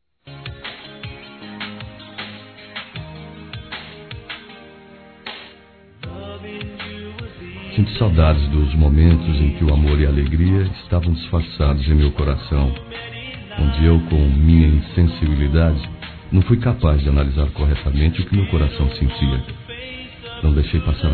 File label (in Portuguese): Erro Término Namoro Masculino; Telemensagens; Reconciliação